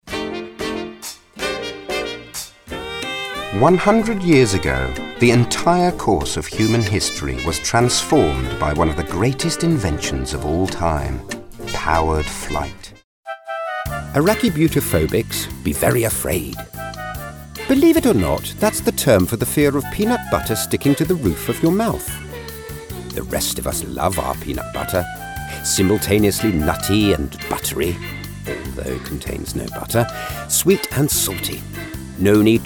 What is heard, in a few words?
Narrative